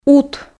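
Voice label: "ут", Komi, female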